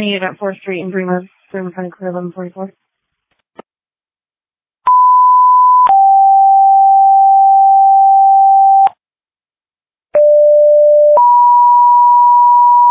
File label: Waverly Fire, dispatch